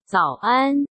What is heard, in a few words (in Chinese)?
"早安", 中国語; taiwandetukauchinese